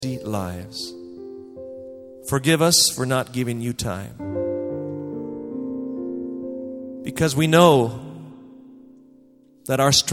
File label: Narration